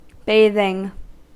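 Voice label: "bathing", US English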